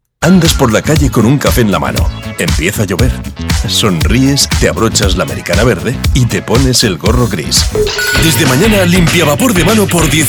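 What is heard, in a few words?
Spanish, male; showreel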